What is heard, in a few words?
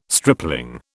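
"stripling", British English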